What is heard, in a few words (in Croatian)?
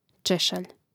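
"češalj", Hrvatski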